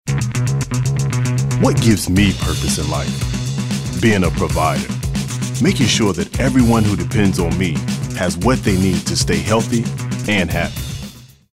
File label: high energy